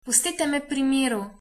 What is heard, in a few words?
leave me alone, slovenian